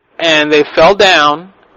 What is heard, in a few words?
and they fell down Vocals